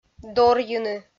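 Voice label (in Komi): "дорйыны", Komi, female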